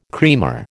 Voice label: "creamer", American